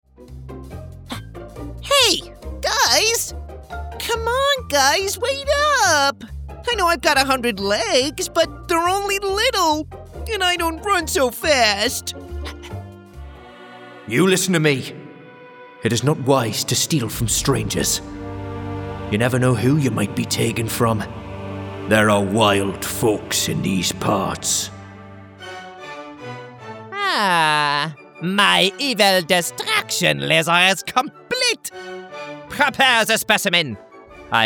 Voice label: male english multiple accents character compilation; english northern accents